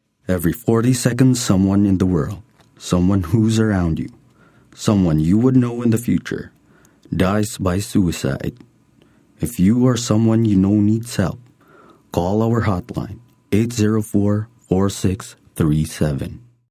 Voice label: English (Concerned, Hopeful)

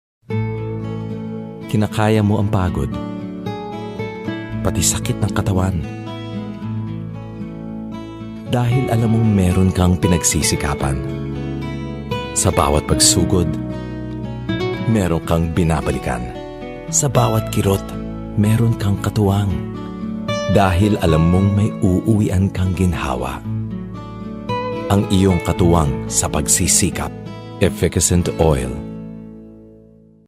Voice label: Tagalog, Concerned

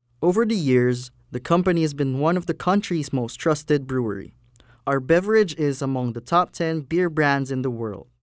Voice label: English, Cool, Informative, Professional

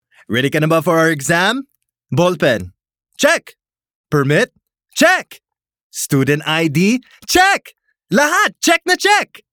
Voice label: Taglish, Confident, Excited

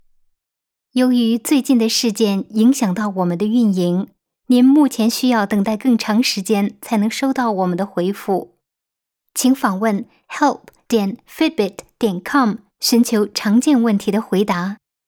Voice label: chineese

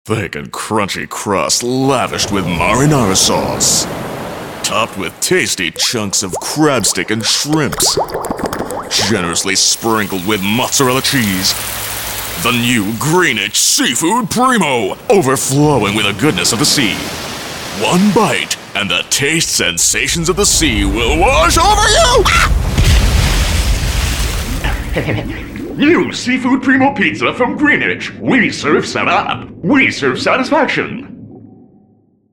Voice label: English (Husky)

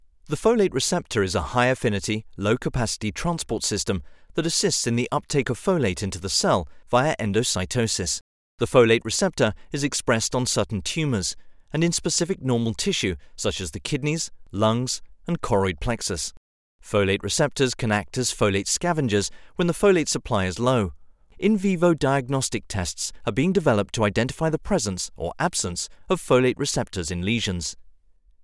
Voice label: English

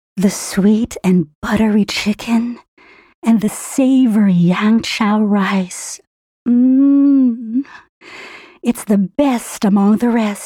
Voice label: Taglish (Sultry, Inviting, Seducing)

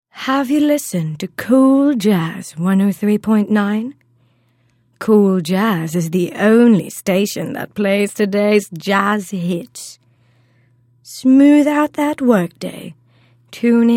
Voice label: English (Smooth, Elegant, Sultry, British)

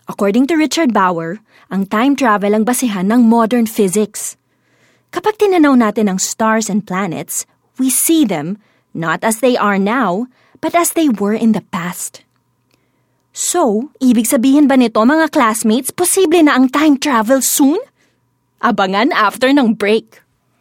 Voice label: Taglish (Friendly, Smart)

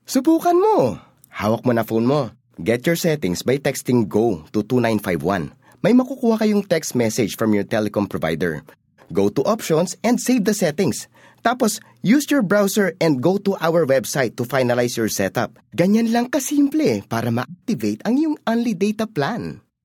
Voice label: Taglish (Selling)

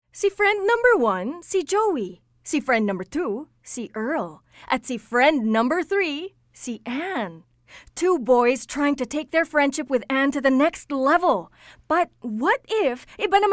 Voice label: Taglish (Warm, Enthusiastic)

